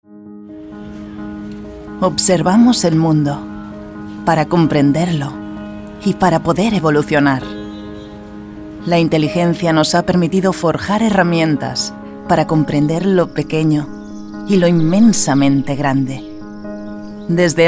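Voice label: European Spanish Documentary; GoLocalise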